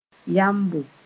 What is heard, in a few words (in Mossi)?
"yambo", moore